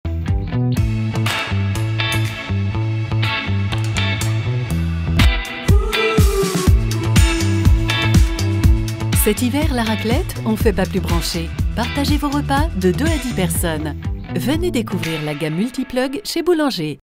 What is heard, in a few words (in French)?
Voix pub Boulanger